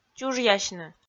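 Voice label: "чужъясьны", Komi, female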